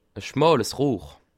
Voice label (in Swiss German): "rohr", alsace